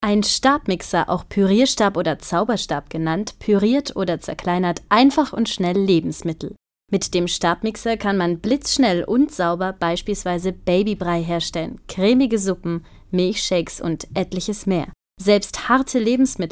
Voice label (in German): Werbung